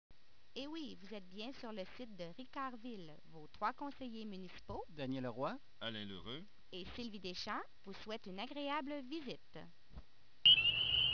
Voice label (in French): publicite